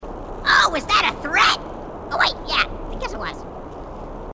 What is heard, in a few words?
grunt crazy; h2dialogue